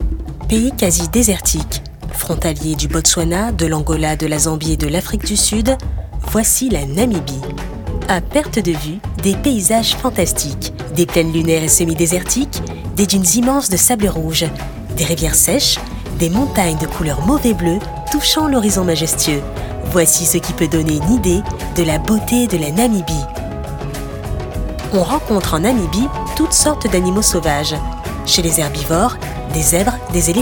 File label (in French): DOCUMENTAIRE TOURISTIQUE, VOIX OFF; LA NAMIBIE